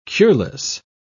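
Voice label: "cureless", British English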